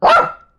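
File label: skill, Japanese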